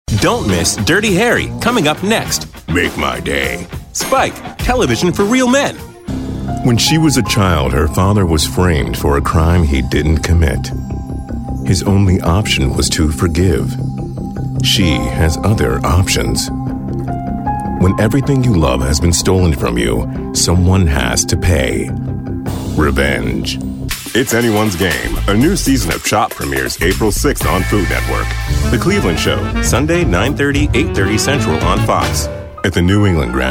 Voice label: Promo, voice-over